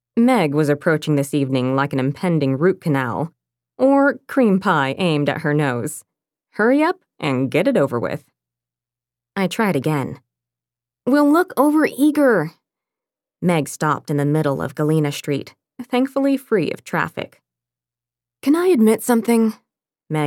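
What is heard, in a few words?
Audiobook Cozy Fiction 1st POV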